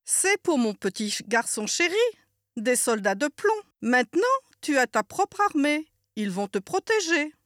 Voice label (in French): french f character; voice demos